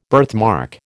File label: "birthmark", American